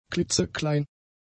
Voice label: "klitzeklein", German; adjectives